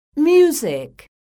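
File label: "MUSIC", English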